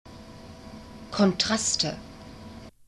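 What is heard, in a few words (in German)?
"kontraste", German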